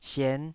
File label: "sien5", taiwanese Syllables